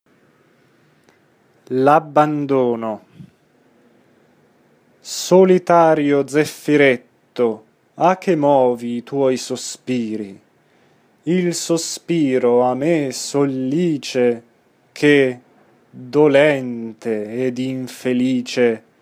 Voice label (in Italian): Abbandono espressivo; Bellini